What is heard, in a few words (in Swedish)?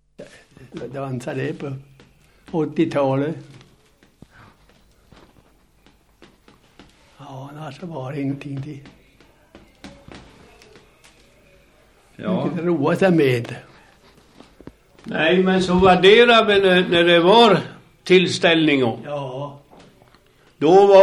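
Söderfors Uppland, dialekter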